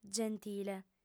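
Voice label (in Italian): "gentile", lingua sarda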